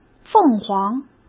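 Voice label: "凤凰", Mandarin; chinese flashcards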